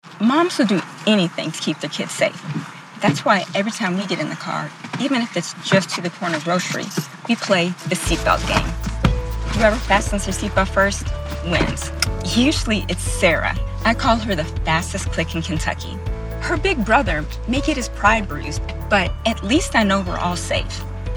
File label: radio spot